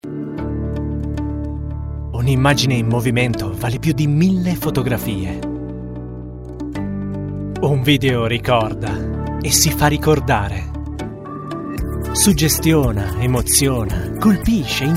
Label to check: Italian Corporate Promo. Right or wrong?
Right